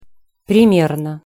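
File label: "primerno", Russian pronunciation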